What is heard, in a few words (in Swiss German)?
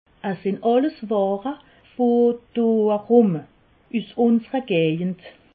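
"gejed", Alsatian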